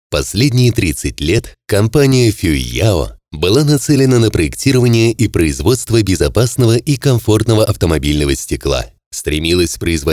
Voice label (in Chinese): 俄语配音